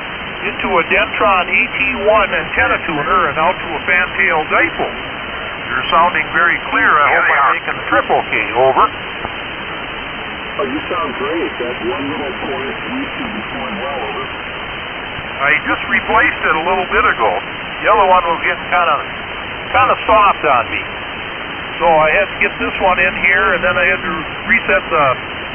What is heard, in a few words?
Swan 260 Cygnet & D-104 Mic; Hager City,Wi.